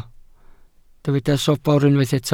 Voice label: "sobr", Welsh

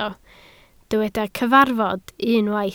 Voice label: "cyfarfod", Welsh

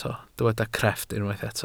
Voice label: "crefft", welsh

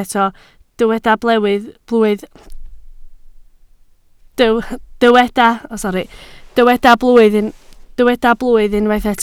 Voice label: "blwydd", Welsh

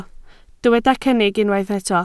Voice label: "cynnig", Welsh

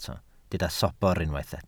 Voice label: "sobr", Welsh

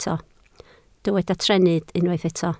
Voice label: "trennydd", Welsh

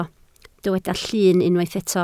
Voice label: "llun", Welsh

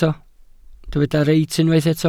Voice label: "reit", Welsh

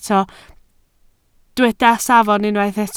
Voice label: "safon", Welsh

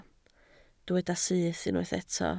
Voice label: "syth", Welsh

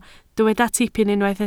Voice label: "tipyn", Welsh

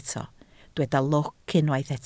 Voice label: "lwc", Welsh

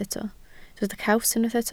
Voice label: "caws", Welsh

